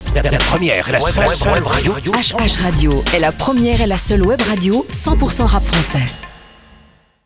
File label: LINER RADIO